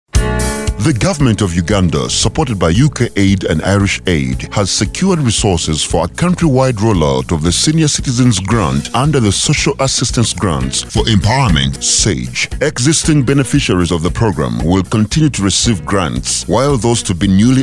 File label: English Spot